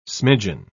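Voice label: "smidgeon", British English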